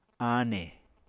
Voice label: "ane", Apatani